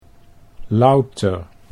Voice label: "louter", Dutch